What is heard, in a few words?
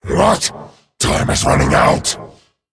Male Dragon Time